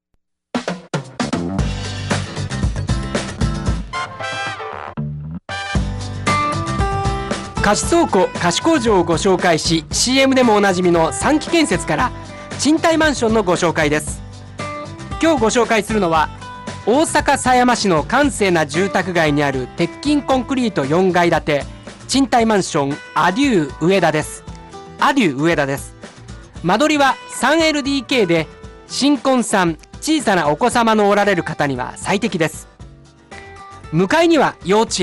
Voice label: radio cm